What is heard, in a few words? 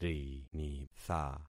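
"rinitha", concatenated syllables; Affixing experiment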